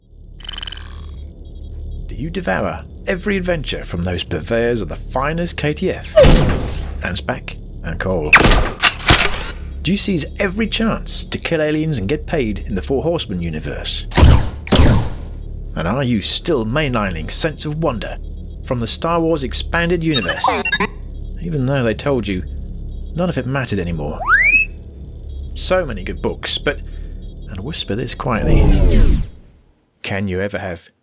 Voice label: Radio Ad; Chimera Company